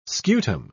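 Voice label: "scutum", English, British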